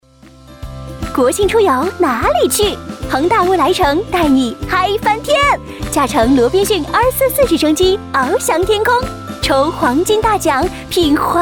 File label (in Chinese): 广告活力